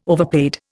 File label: "overpaid", Indian